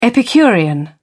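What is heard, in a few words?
"epicurean", British English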